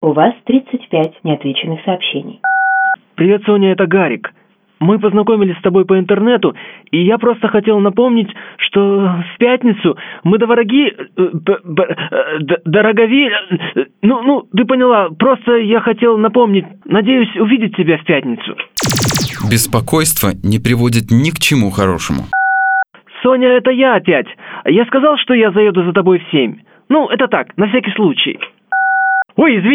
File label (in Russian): Автоответчик; Радиотеатр